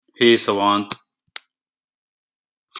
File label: hey savant, wake word, en